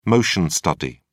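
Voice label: "motion study", British English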